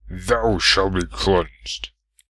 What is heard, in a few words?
Thou Shall Be Cleansed, human male heroic; customnpcs